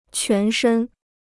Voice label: "全身", Chinese